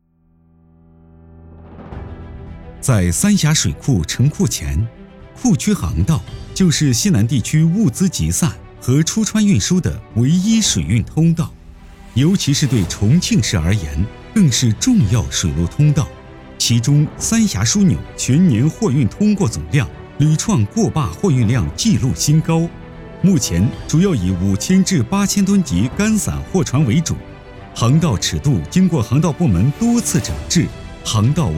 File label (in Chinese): 男 专题 工程回报类